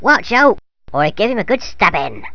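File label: male half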